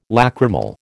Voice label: "lacrimal", American

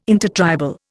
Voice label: "intertribal", Indian

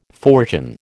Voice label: "fortune", American

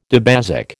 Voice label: "dibasic", American

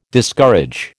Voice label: "Discourage", American

